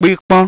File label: "PIPON", Yoruba